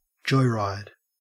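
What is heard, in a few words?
"joyride", Australian English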